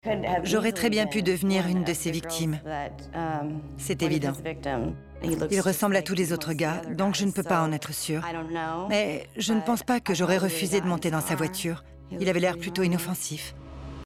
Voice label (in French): voix off témoignage; crimes green river